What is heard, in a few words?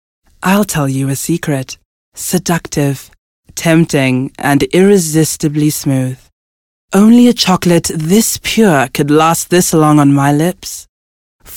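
South African English, Commercial; GoLocalise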